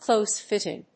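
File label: "close-fitting", English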